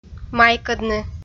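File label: "майкӧдны", Komi, female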